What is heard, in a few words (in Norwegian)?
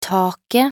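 "taket", Norwegian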